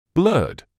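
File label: "blurred", British English; blɜːd; blurd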